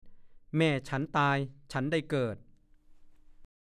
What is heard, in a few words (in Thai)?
"แม่ฉันตายฉันได้เกิด", ภาษาโคราช; (ปริศนาคำทาย) เห็ดกระด้าง